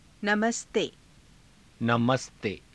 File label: "namaste", Hindi